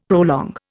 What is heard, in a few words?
"prolong", Indian; Dictionary